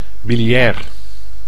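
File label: "biliair", Dutch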